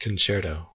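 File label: "concerto", US English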